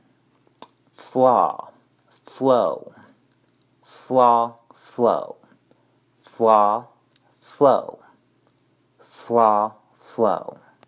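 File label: Flaw flow, English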